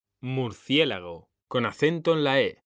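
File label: "murciélago", Spanish, male; mekanta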